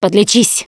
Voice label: suggest health, russian; Producer